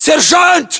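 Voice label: rank sergeant, battlechatter, Russian; localized english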